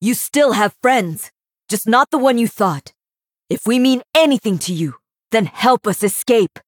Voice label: Female Human Victory; Grace; Mission 14 Victory